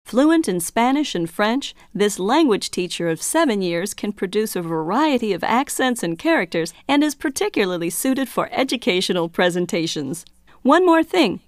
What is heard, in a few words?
English Francais Espanol